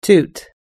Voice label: "toot", us english